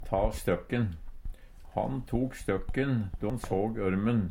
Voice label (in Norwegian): ta støkken, Numedalsmål; verte redd; Uttrykk; Han tok støkken då'n såg ørmen.